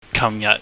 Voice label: "kahmyaht", Cantonese